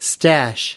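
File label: "stash", American English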